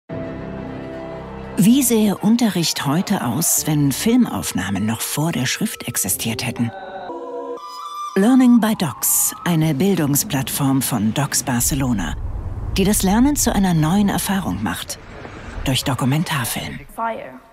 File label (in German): trailer dokus